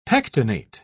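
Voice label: "pectinate", British English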